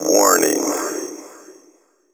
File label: techno male 140; Vocals